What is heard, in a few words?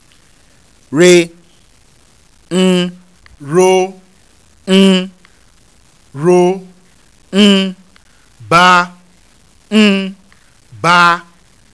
miroba, Yoruba tones; RO BA set; M re as in ro ba